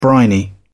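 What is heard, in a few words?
"briny", British English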